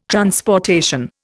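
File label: "transportation", Indian English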